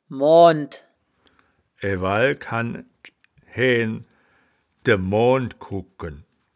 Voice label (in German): "muund", Wittlicher; das wittlicher woerterbuch; woerterbuch vertonung